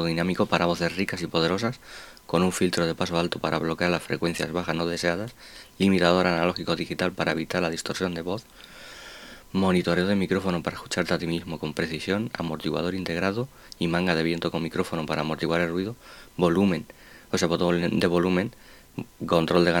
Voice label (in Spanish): PRUEBA DE GRABACION DE VOZ RAZER SEIREN V2 PRO